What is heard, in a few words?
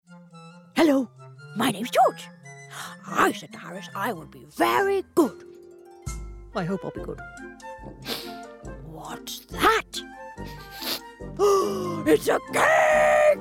Animation; George